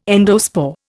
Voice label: "endospore", Indian